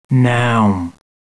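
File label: "no", male; TALKNOW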